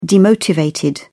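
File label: "demotivated", British English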